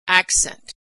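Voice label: "accent", American English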